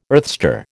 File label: "earthstar", American